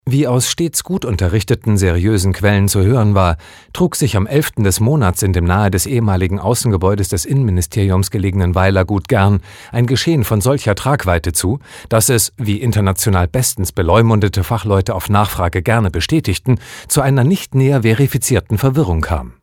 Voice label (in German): Kommentar Dynamisch